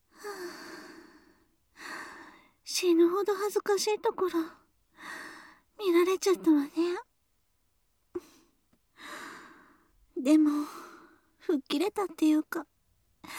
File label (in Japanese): ピロートーク; あなたを選んだ理由